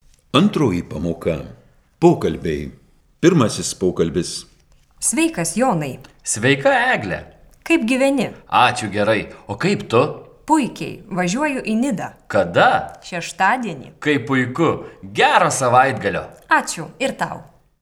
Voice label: Dialog